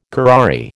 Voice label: "curare", American